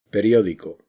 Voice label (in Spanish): acento lexical periodico, castilla; spansk; fonetica; consonantes-castilla